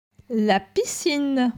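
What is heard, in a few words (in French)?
"La piscine", French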